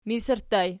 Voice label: "misirttu", Archi